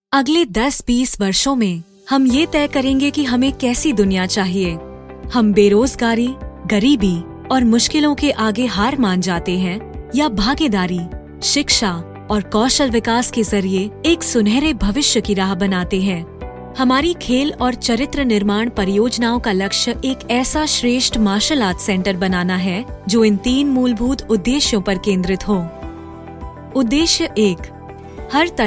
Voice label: Martial Art Hindi